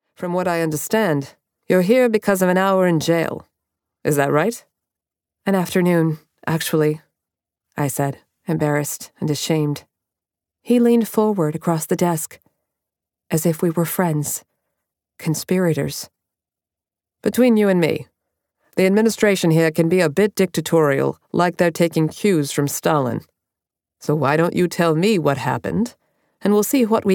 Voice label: Law Professor elevated Boston M F dialogue